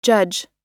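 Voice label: "judge", English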